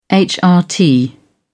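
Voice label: "hrt", British English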